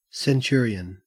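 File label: "centurion", Australian English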